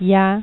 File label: "Yes", es, Adult, Male; nl